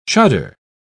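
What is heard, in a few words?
"chuddar", British English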